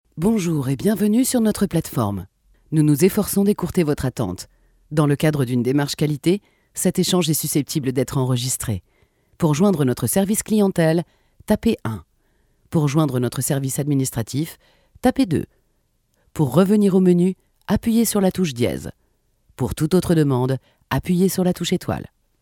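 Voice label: French, female, e-learning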